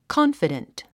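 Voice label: "confident", English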